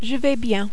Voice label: "je vais bien", French